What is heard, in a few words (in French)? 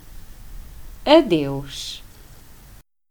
portugais "Adeus"